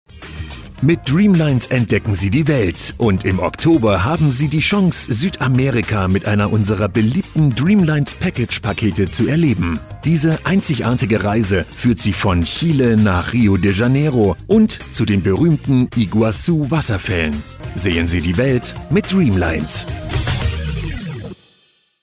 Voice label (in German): Werbung Sales TV; Dreamlines